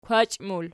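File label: "kwachi", plural, Archi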